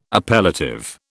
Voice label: "appellative", British